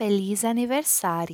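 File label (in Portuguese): "Feliz Aniversario", Brazilian Portuguese; happy birthday in portuguese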